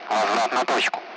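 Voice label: Speech, Russian; Allied Flight; DCS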